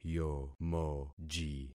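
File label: "yomoji", concatenated syllables; Affixing experiment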